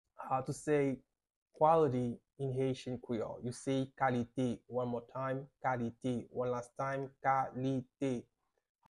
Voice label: "Kalite" Haitian Creole pronunciation by a private Haitian tutor; How to say Quality in Haitian Creole